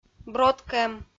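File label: "броткӧм", Komi-Zyrian, female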